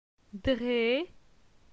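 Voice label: "Dre", French; phonetique; la syllabe e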